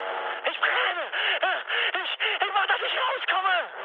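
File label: German on fire mood high, speech; War Thunder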